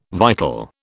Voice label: "vital", British